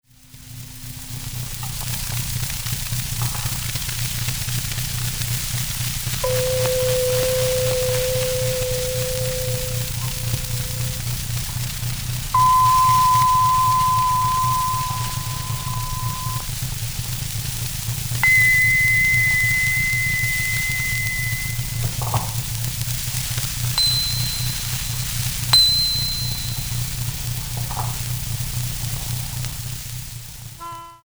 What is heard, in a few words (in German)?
Experimentalaufnahmen Vokale; Stumpf